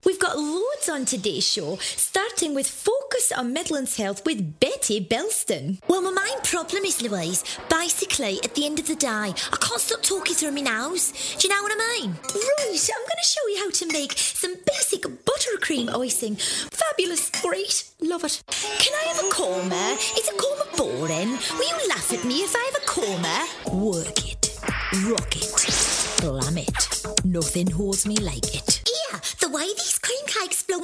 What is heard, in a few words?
Character